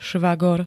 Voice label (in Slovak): "švagor", Slovenské